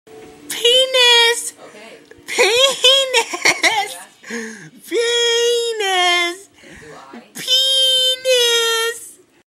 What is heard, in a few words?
funny guy saying penis